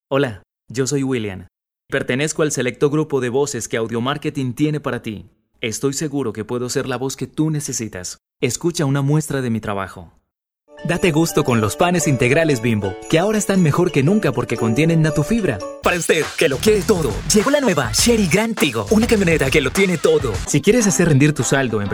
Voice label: Locutor colombiano

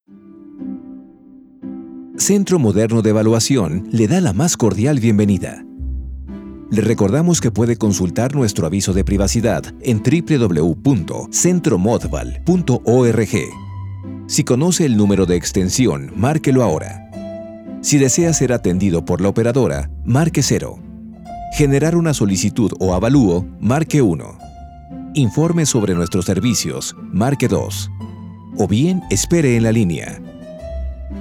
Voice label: IVR masculino

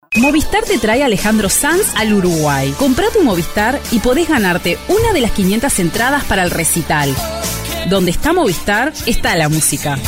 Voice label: Locutora uruguaya

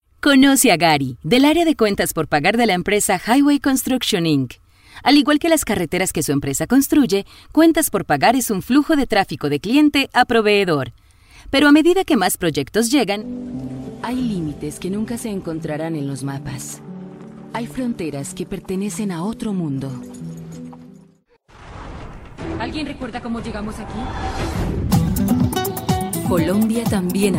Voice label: Locutora colombiana

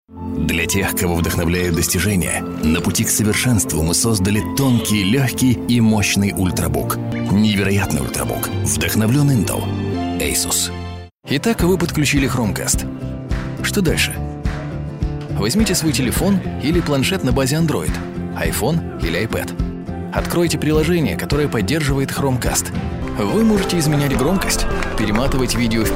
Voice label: locutor ruso; rusia

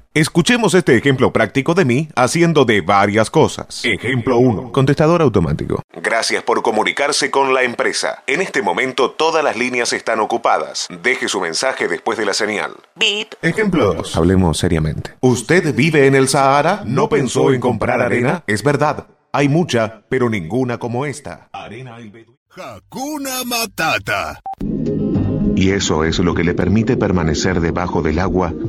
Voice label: Locutor Argentino; argentina

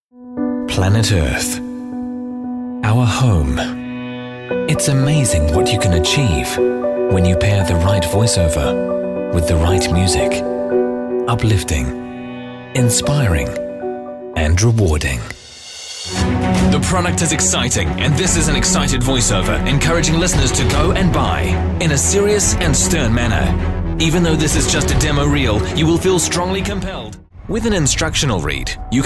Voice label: locutor ingles; inglaterra

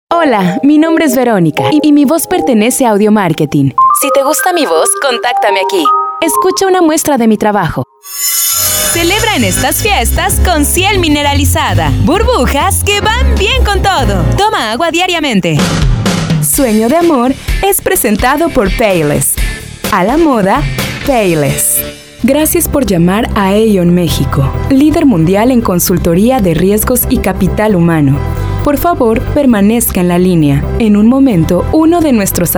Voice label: mexicana; Locutores

